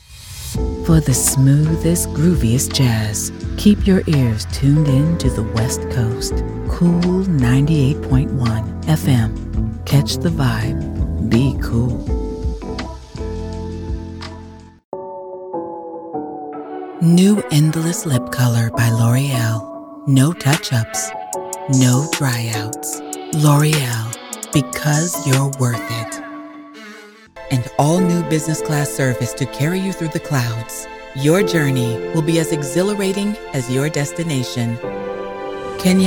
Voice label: English Voice Over